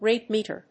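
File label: "RATE METER", English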